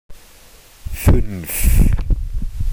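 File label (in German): "fuenf", German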